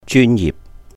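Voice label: "jyūn yihp", Cantonese; professional; 專業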